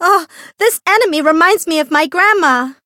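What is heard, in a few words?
Voice encounter fear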